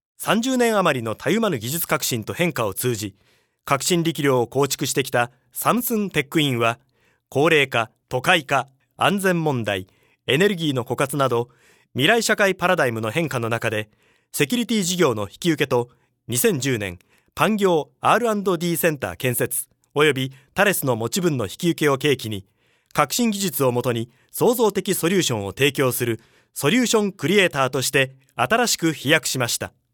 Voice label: japanese m commercial; voice demos